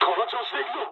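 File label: player killed enemy mood high, speech japanese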